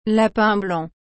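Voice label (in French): "lapin blanc", French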